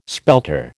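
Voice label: "spelter", American English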